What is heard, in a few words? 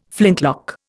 "flintlock", Indian